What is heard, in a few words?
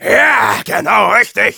positive vocalization, de; Demoman